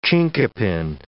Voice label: "chinkapin", English